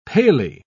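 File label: "palely", British English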